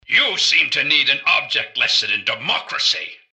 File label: generic robot murder; mrgutsy; npc